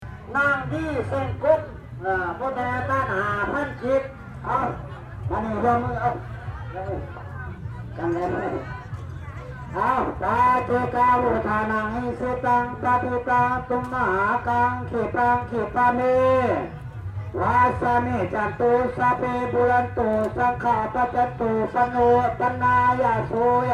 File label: PA chantathon, laos